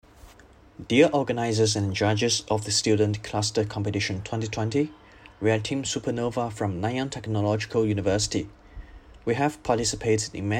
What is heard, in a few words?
Voiceover; ClusterComp 2020